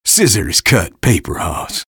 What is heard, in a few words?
eng taunt rps win; vo; taunts; tf2; engy